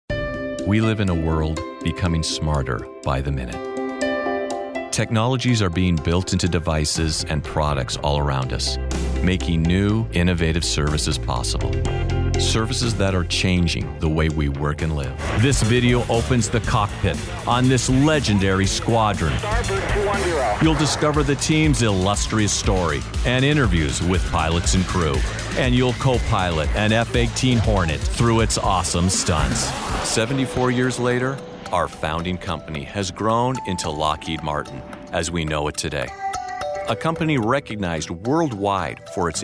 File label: Narration